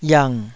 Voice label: "yang3", taiwanese Syllables